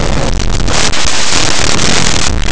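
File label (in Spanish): "absorbente", Spanish; laboratori fonetica; aproximants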